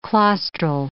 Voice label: "claustral", English

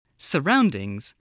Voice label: "surroundings", English

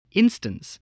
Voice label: "instance", voice spelling

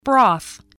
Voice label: "broth", spelling

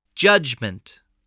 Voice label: "judgement", English

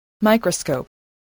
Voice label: "microscope", voice, spelling

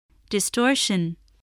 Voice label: "distortion", English

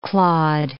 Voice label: "clawed", English

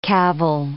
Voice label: "cavil", English

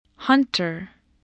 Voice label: "hunter", voice spelling

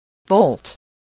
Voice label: "volt", spelling

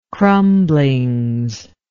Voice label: "crumblings", english

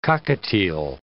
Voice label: "cockatiel", English

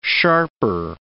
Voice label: "sharper", English

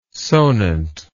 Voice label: "sonant", English

